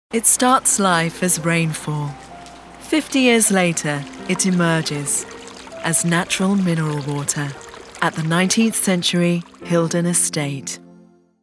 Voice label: Commercial; HILDON